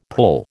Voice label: "pull", American